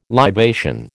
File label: "libation", American